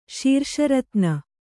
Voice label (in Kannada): "ಶೀರ್ಷರತ್ನ", Kannada; śirṣa ratna; noun